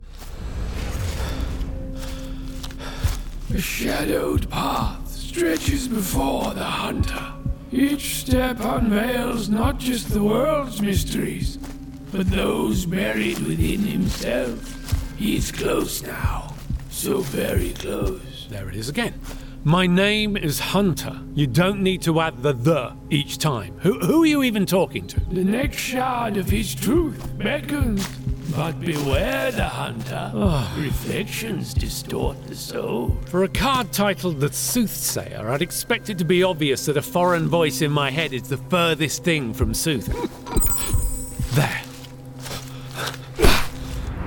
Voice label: Character